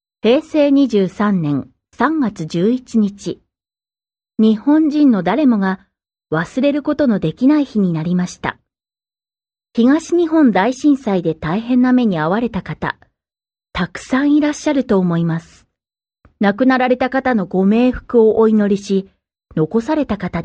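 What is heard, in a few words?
Japanese, female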